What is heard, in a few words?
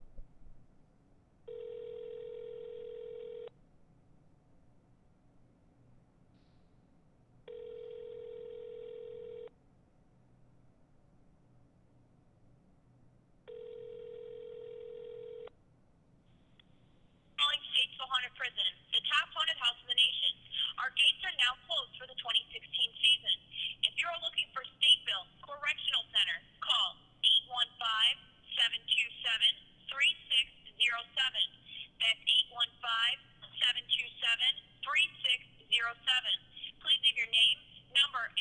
haunted prison voicemail; statesville